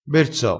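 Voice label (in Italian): "berso", vocabolario dialettale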